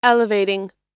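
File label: "elevating", American English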